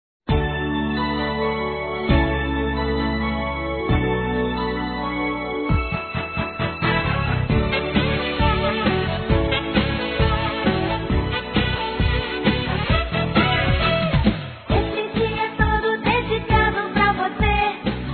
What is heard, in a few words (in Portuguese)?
ANIV. NETO(A) CRIANÇA F